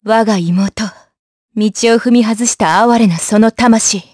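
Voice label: voice trs, voices heroes, Japanese; Seria; kingsraid audio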